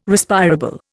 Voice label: "Respirable", Indian